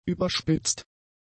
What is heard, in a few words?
"überspitzt", German; adjectives